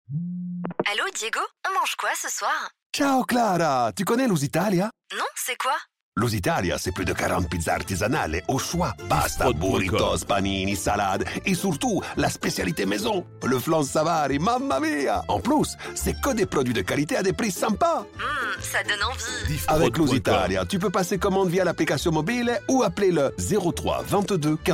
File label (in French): Spot Restaurant, publicite; Lusitalia